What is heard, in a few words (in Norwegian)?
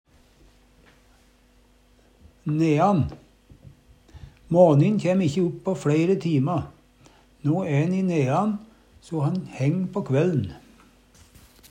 nean, Numedalsmål; månestilling, minkande måne; Månin æ i nean no.; Adverb